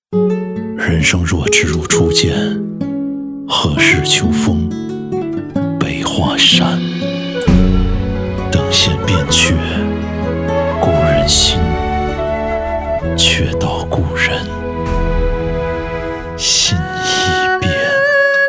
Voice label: 男 独白; 人生若只如初见

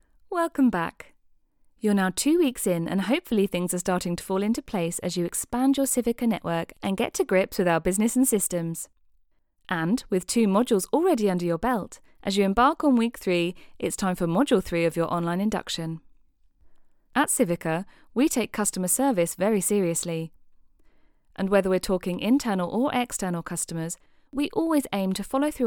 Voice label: 外籍英女-英式--培训类

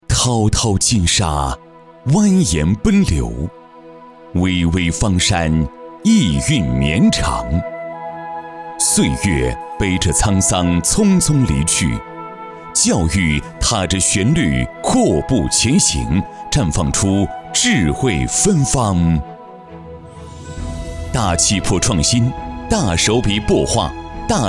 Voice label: 男 宣传片; 心守阳光

